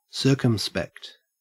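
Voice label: "circumspect", English, Australian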